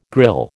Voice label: "grille", American